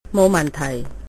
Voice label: thanks response, cantonese

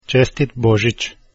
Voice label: christmas, croatian

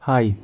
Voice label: hello, gwentian

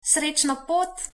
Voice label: bon voyage, slovenian

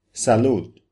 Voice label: cheers papiamento

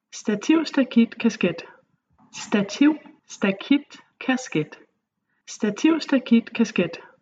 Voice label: stativ stakit kasket, danish tonguetwisters

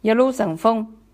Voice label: bon voyage, shanghainese

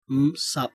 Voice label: cantonese, numbers

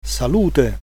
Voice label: cheers, italian

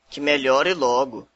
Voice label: get well soon, portuguese br

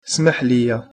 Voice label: excuse me, arabic

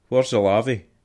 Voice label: toilet, scots

